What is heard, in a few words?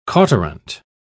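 "cauterant", British English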